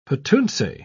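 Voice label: "petuntse", English, British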